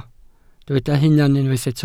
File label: "hunan", Welsh